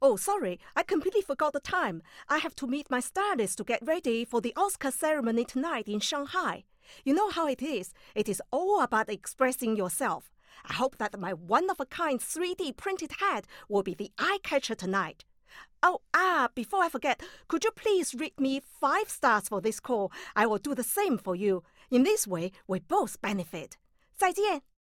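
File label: Chinese, Accented English; GoLocalise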